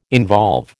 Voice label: "involve", American